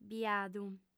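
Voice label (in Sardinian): "biadu", female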